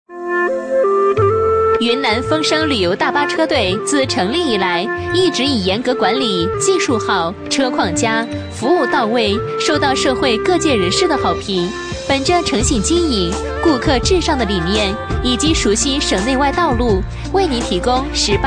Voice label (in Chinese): 女47号旅游宣传; 云南风昇